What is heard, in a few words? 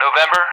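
"November", English; Alphabet; DCS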